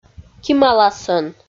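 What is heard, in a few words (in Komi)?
"кималасӧн", Komi, female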